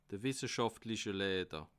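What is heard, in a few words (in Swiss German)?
"leiter", Bas Rhin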